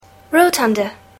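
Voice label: "rotunda", English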